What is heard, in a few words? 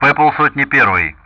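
Speech Sound Russian; Common Player Mustang; DCS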